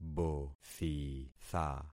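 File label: "bothitha", concatenated syllables; Affixing experiment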